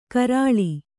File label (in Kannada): "ಕಾರಳ್ಳು", Kannada